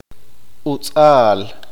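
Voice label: "caña", Q'eqchi'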